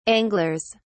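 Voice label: "anglers", British English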